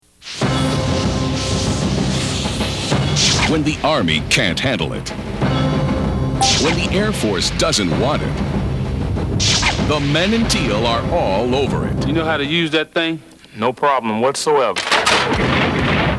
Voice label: Intense High Energy; FSN - SOUTH